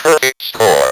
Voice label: perfect score, Speak & Spell